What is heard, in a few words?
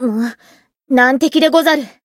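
Voice encount fear, Japanese; azami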